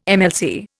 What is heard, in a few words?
"MLC", Indian